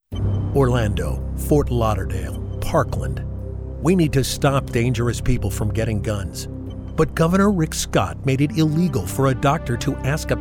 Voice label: pol, Political